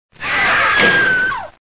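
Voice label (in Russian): женский голос угар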